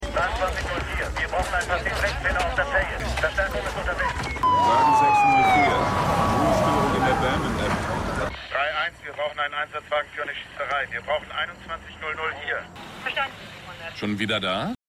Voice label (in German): Div Funkstimmen; The Wire